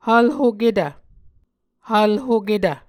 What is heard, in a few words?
"hvlhoketv", Muscogee